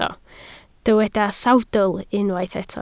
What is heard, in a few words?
"sawdl", Welsh